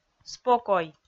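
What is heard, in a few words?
"спокой", Komi, female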